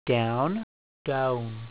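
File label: "down", Elizabethan English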